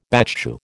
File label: "battue", American